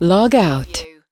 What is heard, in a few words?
US female log out; lynne publishing